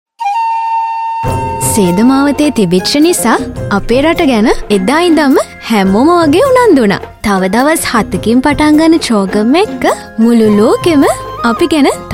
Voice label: Sinhala Female